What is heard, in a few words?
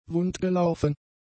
"wundgelaufen", German; adjectives